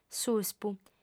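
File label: "suspu", female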